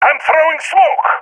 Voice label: throwing smoke, balkan; vo; balkan epic